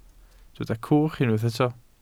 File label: "cwch", Welsh